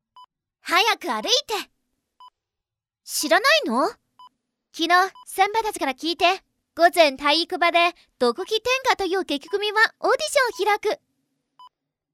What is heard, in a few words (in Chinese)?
女, 日语 活力少女